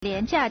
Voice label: "lian2jia4", Chinese